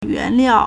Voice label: "yuan2liao4", Chinese

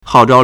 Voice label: "hao4zhao4", Chinese

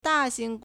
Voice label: "da4 xing2", Chinese

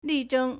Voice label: "li4zheng1", Chinese; 力争; 力爭; lìzhēng; verb; to work hard for; to contend strongly

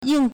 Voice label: "ying4", Chinese

